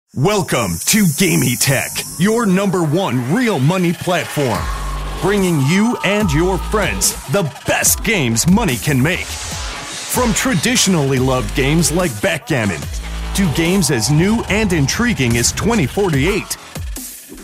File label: announcer